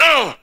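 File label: "uh", male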